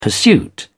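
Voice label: "pursuit", British English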